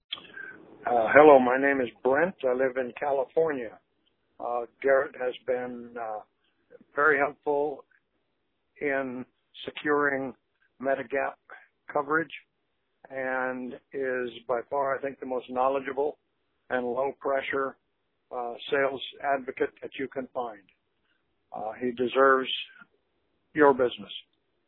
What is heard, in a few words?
Voice Testimonial